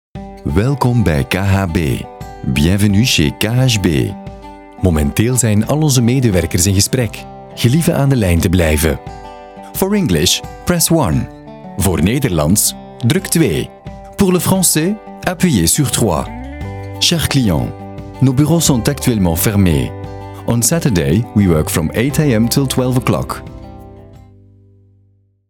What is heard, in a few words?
voicemail